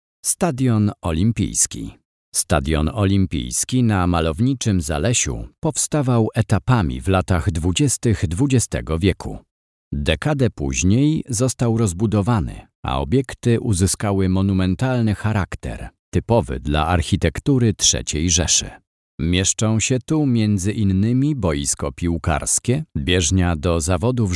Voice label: polish voice olympic stadium; zalesie olympic stadium

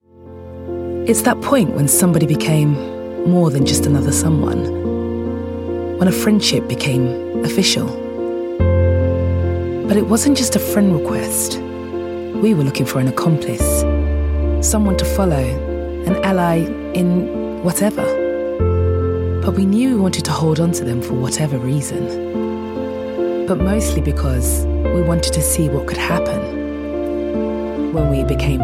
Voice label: warm soft sell

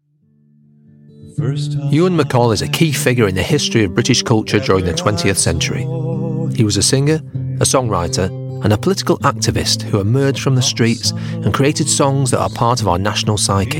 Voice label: documentary

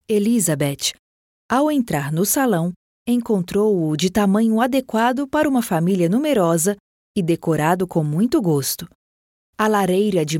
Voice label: brazilian voice audiobook

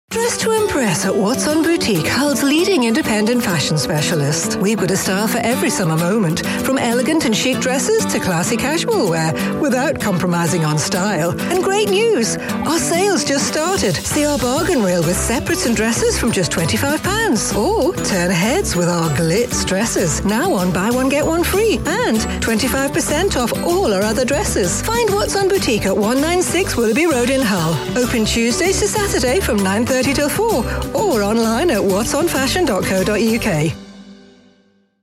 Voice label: bright breezy radio ad

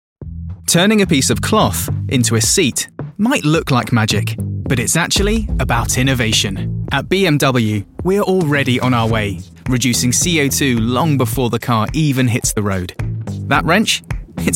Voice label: corporate image film

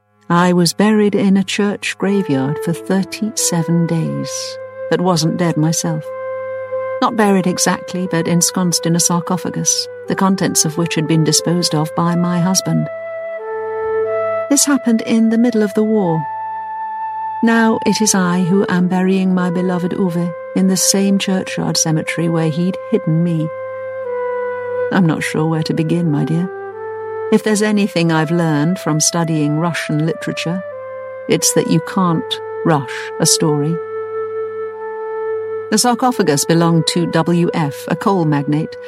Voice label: audiobook; here in berlin

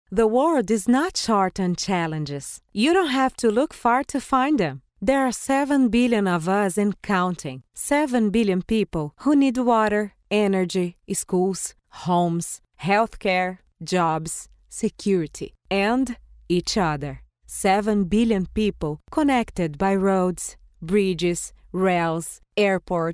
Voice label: brazilian portuguese female english

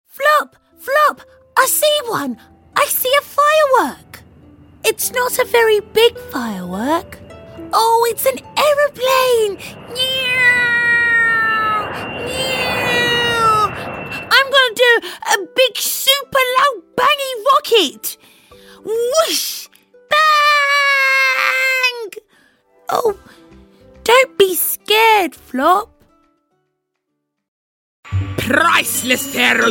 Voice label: character

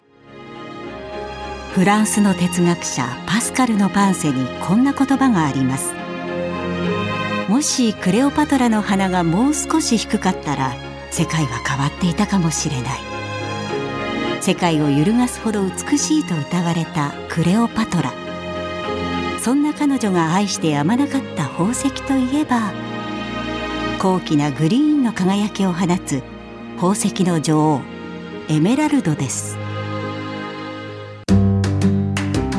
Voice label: japanese voice natural

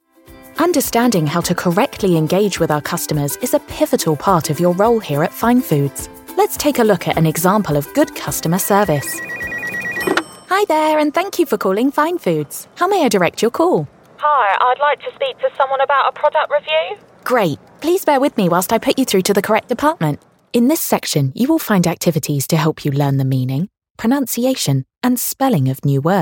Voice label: elearning

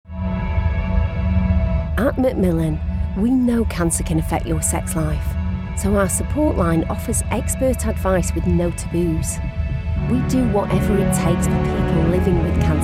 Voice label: warm northern

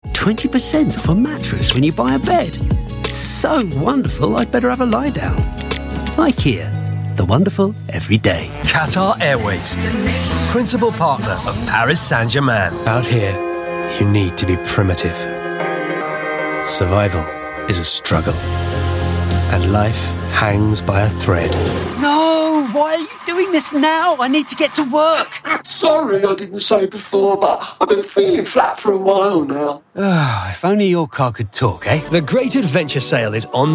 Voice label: commercial, Cool and Confident Male Voice

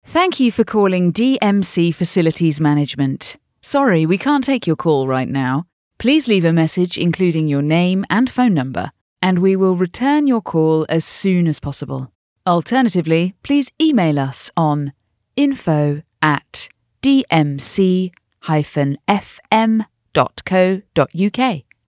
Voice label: sassy voiceover telephone

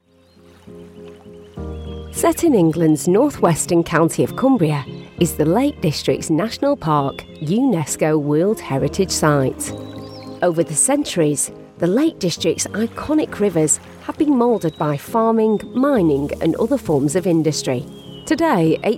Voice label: explainer

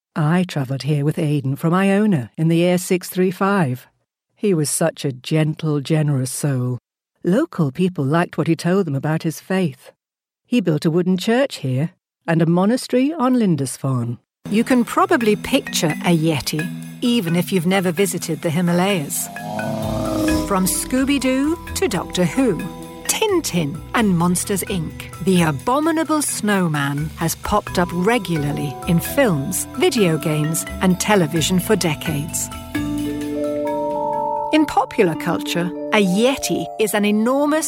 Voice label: natural

